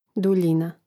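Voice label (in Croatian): "duljina", Hrvatski; mreznik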